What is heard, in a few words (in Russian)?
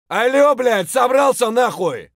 "sobralsy nahuy" scream; tarkov